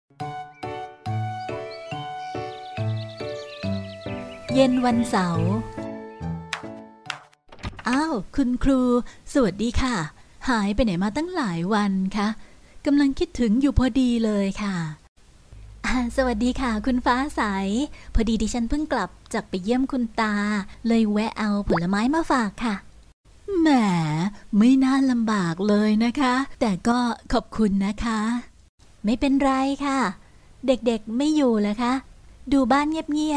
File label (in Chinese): 泰语女声, 新闻报道